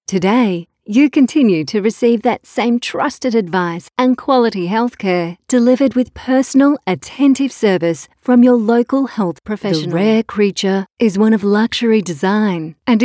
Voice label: Soft Sell